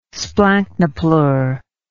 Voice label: "splanchnopleure", English